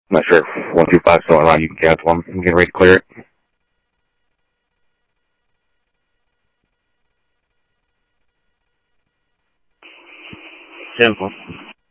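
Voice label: Sheriff East County, scanner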